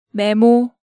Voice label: "Memo", Korean

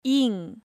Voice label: "Ing", Korean

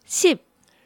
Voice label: "sip", Korean

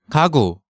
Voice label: "Gagu", Korean

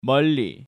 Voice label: "Meolli", Korean

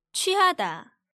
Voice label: "chwuihada", Korean

